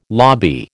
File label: "lobby", American